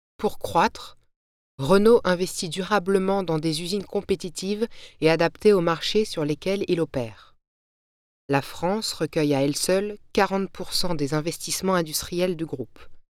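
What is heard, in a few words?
French Corporate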